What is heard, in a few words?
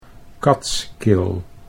"Kats Kill", Dutch